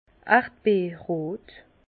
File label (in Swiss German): "erdbeerrot", Bas Rhin; fraise; vin